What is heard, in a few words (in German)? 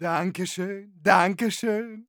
positive vocalization, de; "Danke schön, danke schön..."; Medic